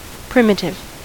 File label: "primitive", us